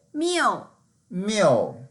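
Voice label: "miu4", Mandarin Chinese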